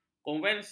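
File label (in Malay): "konvensi", Malay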